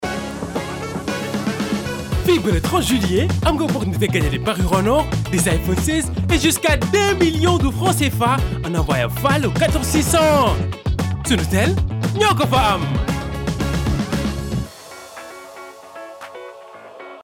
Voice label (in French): voix avec musique energique